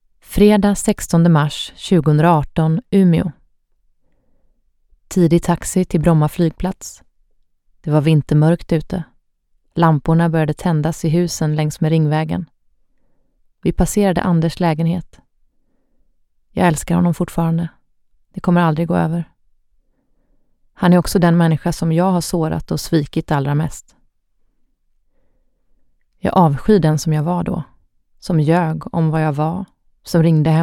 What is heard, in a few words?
SWE Audiobook f